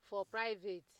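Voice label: "for private", Nigerian Pidgin, female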